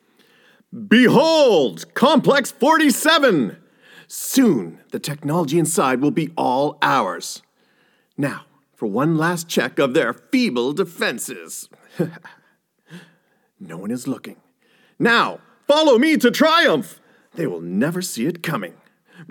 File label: Animation Voiceover